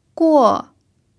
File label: "guo4", chinese pinyin